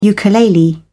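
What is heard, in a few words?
"ukulele", British English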